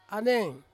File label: "aneng", Ansus; stomach